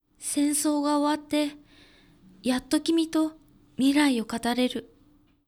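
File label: female; クール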